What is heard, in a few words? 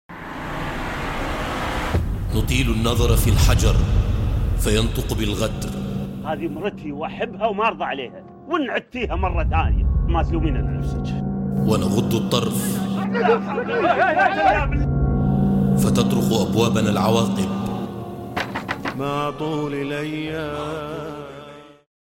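Arabic, Narrative; GoLocalise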